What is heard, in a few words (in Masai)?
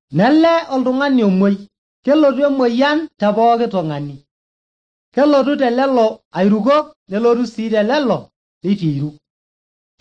maasai bsv nt drama; good news; bible